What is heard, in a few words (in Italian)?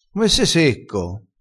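"secco", dialettale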